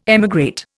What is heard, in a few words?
"emigrate", Indian